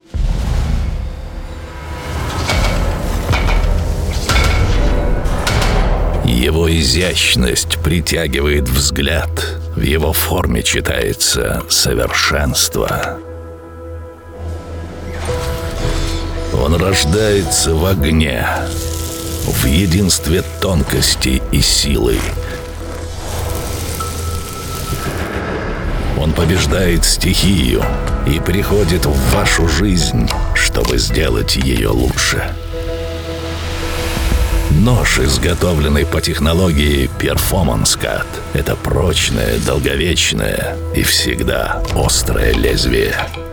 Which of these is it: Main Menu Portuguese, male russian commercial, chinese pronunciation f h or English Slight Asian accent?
male russian commercial